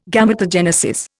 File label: "Gametogenesis", Indian